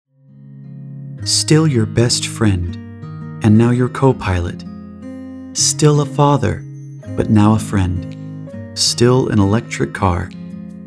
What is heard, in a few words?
vo commercial